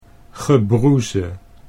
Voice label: "gebroeze", Dutch